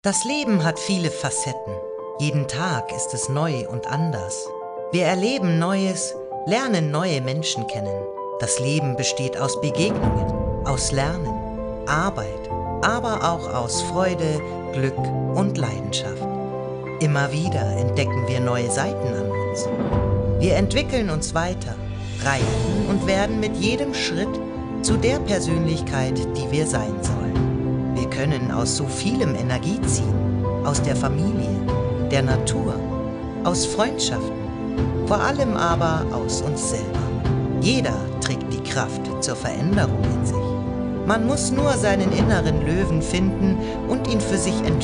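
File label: German, female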